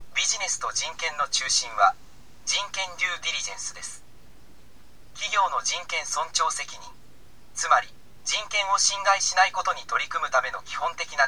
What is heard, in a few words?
elearning; japan; business and human rights